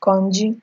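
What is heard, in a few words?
"konji", Nigerian Pidgin, female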